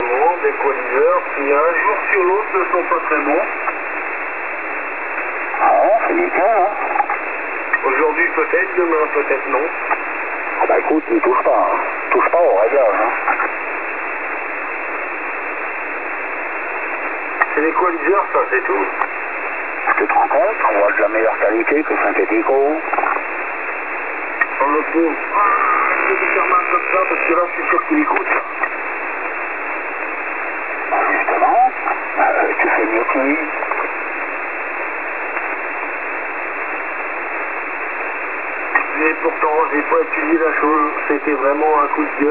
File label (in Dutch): onbekend Franstalig met data geluid er door heen LSB